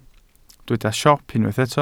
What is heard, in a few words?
"siop", Welsh